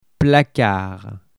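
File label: "placard", French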